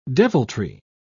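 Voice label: "deviltry", British English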